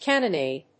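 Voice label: "cannonade", English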